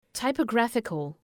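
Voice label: "typographical", English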